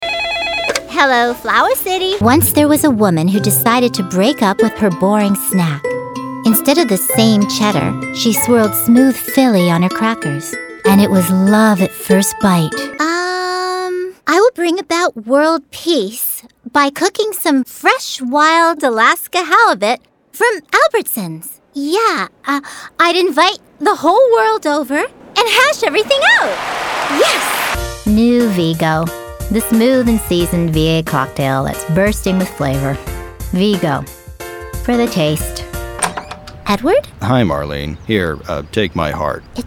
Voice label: commercial female